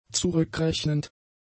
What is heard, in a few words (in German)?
"zurückrechnend", German; adjectives